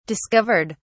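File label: "discovered", English, British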